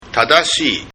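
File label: "tadashii", Japanese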